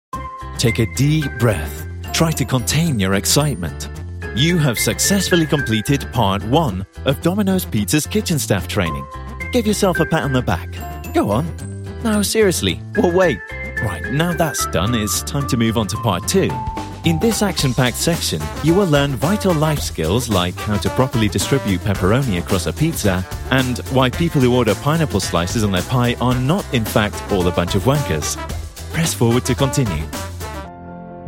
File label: Elearning